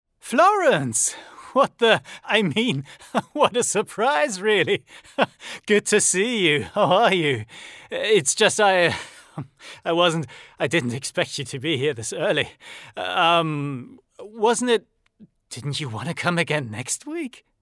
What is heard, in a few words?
English; Surprise